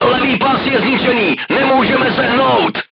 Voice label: voice message left track knocked down, crew tanks czech